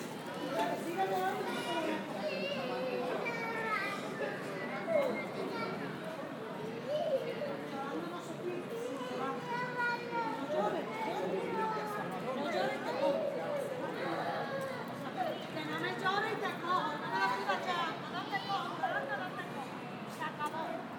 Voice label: Voc Kid